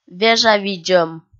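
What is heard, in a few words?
"вежавидзӧм", Komi, female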